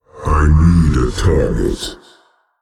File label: Male Old God error target